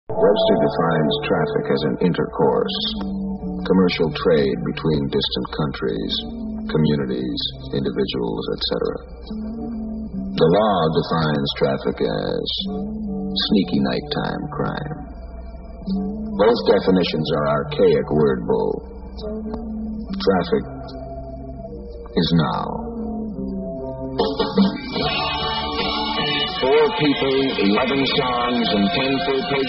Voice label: lp ad; psychedelic promos and radio spots

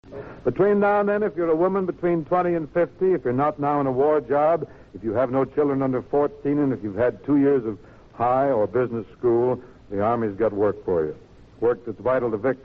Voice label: recruiting psa wac recruiting promo; with bing crosby

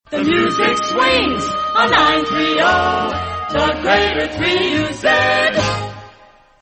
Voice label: australian otr promos and idents; 3uz the music swings

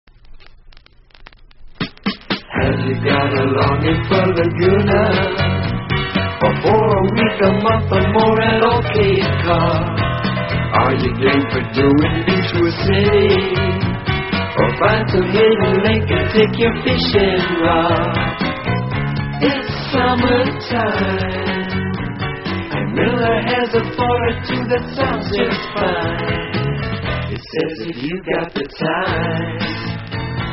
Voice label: beer spot, radio spots; miller; psychedelic promos and radio spots

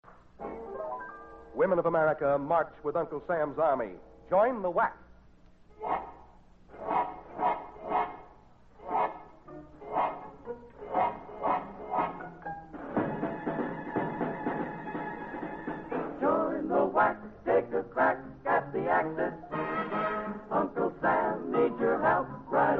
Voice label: recruiting psa wac recruiting promo

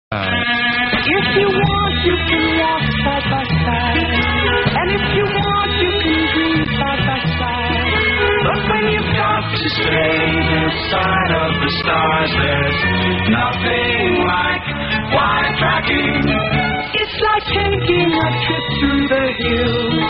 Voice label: shoes, radio spots; tom mccans; wide trackin; psychedelic promos and radio spots

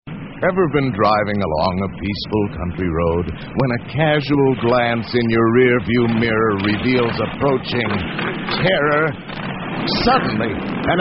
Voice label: movie promo, radio spots; the wild angels; psychedelic promos and radio spots

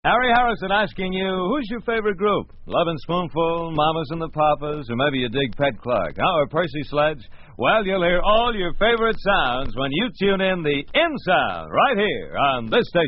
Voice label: promo; in sound harry harrison; psychedelic promos and radio spots

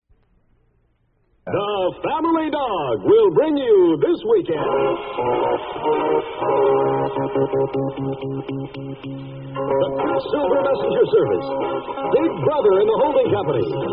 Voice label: concert promo, radio spots; psychedelic promos and radio spots

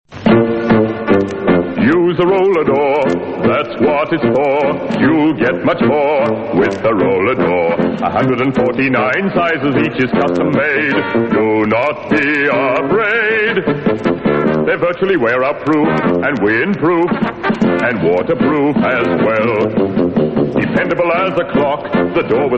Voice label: australian otr ads; band d rollerdoor

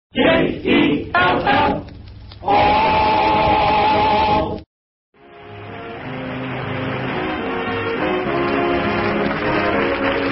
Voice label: vintage commercials chocolate pudding; jello

